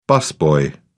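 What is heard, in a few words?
"busboy", British English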